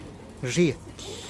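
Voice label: "zhietih", Teotitlán del Valle Zapotec; cebolla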